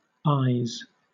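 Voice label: "ayes", British English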